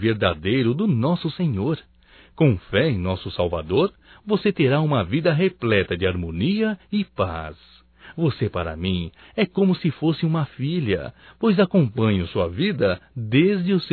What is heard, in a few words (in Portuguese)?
Aniversário Sobrinha Evangélico Masculino; Telemensagens 2017